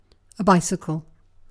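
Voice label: "bicycle", British English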